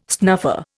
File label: "snuffer", Indian